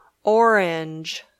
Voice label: "orange", English

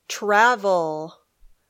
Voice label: "travel", English

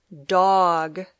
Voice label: "dog", English

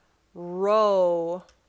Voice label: "row", English

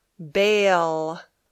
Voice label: "bail", English

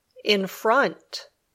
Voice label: "in front", English

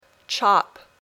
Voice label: "chop", English

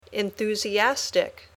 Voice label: "enthusiastic", English

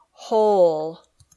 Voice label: "whole", English

